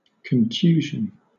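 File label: "contusion", British English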